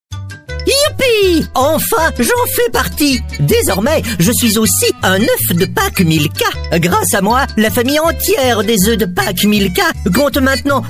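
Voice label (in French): Belgian French, male, commercial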